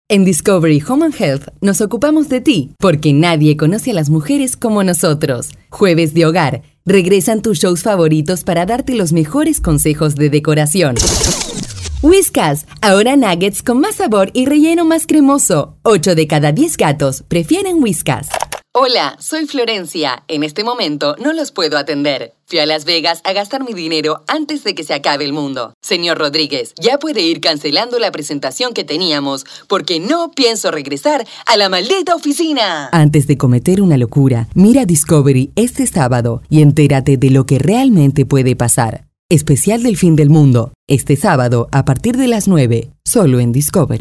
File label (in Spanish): locución neutro